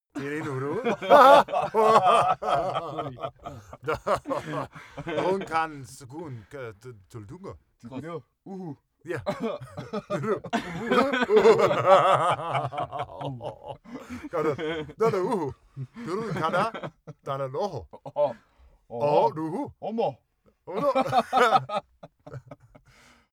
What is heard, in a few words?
talk laugh